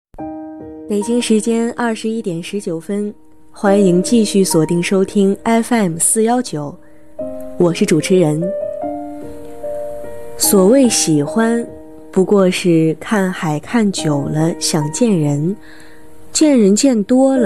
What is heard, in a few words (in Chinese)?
电台配音